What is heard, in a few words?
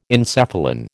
"encephalin", American